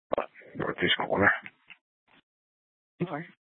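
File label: police dispatch, Scottsdale PD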